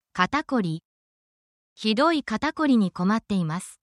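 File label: Japanese word, essential Japanese phrases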